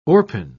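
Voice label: "orpin", British English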